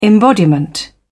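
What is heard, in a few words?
"embodiment", British English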